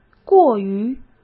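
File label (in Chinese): "过于", Mandarin Chinese; hsk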